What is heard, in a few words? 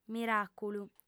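"miràculu" Sardinian, female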